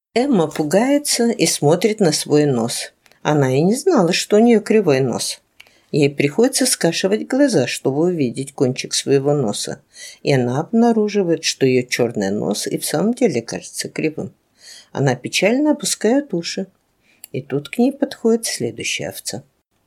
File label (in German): russisch